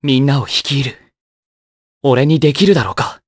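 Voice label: vox Japanese; Kasel; kingsraid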